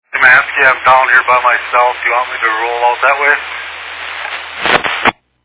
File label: Fire Dispatch